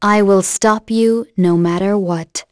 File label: Vox Skill, en; kingsraid